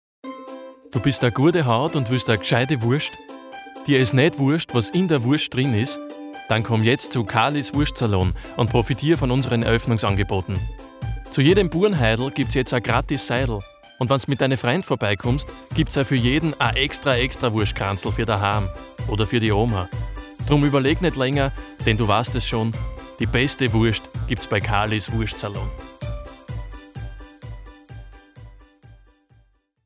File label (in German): Werbung; Karlis Wurstsalon